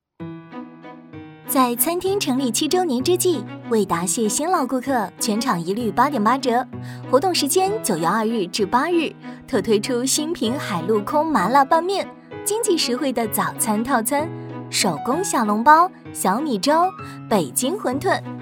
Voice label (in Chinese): 餐饮门店 宣传